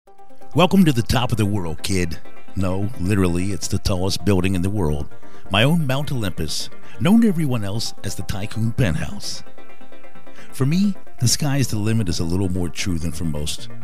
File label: video game trailer